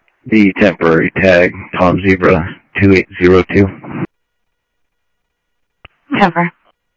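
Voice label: Sheriff Lake Patrol, scanner audio; ThompsonPeakScot; MCSO Lakes 1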